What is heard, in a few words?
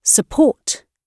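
"support", British English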